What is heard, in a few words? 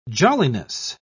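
"jolliness", British English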